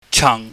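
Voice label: "chang", Cantonese, low falling tone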